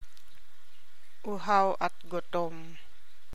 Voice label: TAGALOG